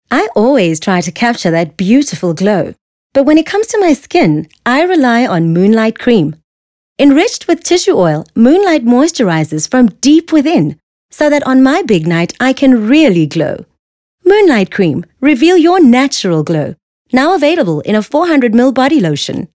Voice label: English Conversational; OSM Talent